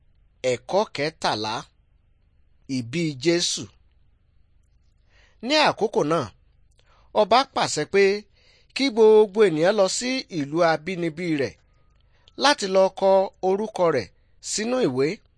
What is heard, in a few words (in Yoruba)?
good news yoruba